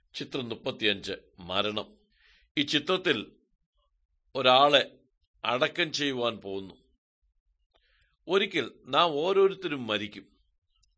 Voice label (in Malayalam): good news malayalam, drama; bible; world bible translation center nt drama